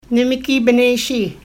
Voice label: "nimkii-bneshiinh" pronunciation; Aadsookaan related words